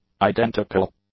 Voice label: "identical", English; adjectives